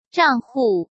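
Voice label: "账户", Mandarin Chinese; hsk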